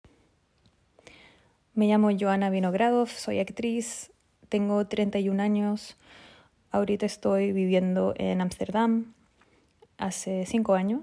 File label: Spanish Latin American